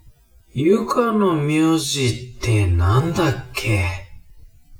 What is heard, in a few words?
man